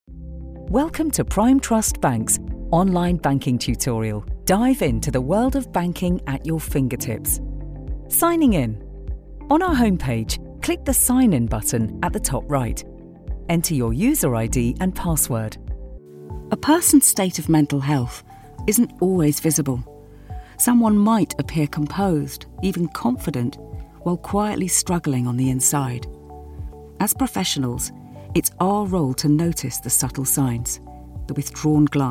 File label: E-Learning